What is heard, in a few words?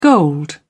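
"gold", British English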